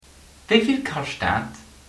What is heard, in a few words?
how much, luxembourgish